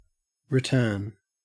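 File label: "return", Australian English; pronunciations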